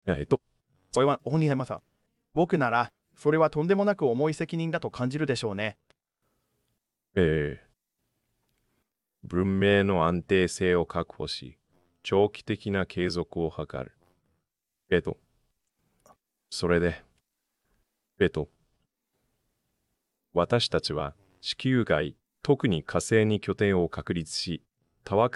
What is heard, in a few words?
japanese; Subformer